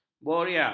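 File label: "boria", Malay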